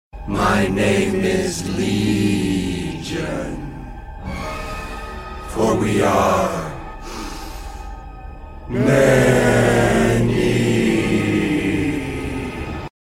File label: Scary Voice